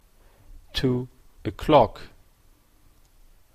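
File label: "two o'clock", Canadian English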